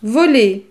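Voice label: "voler", French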